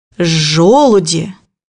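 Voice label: "acorns", Russian; bukvar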